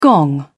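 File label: "gong", British English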